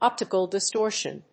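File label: "OPTICAL DISTORTION", English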